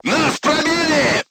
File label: voice message player tank hit, crew tanks russian